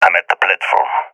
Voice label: at platform, balkan; vo; balkan epic